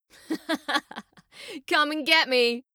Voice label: Female; Skyrim Special Edition; Dawnguard Beleval